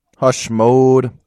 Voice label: hush low